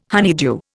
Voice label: "honeydew", Indian